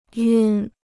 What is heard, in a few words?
"晕", Chinese